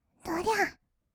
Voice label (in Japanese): どりゃあー, kawaii voice; ASMR; 色々なワンショット; 1200 kawaii voice!!